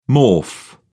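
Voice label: "morph", British English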